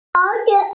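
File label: "ceng2", Mandarin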